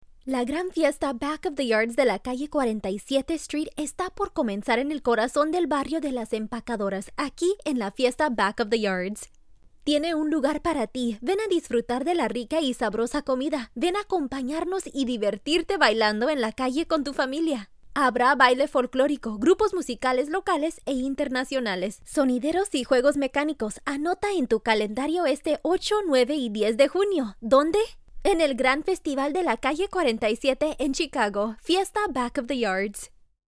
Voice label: PORTUGUESE